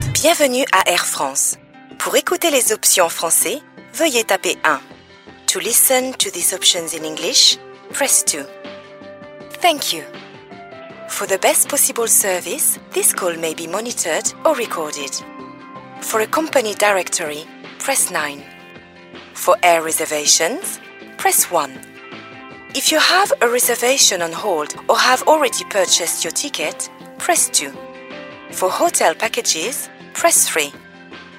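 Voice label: French, IVR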